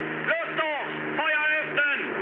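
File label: German attack position mood low, speech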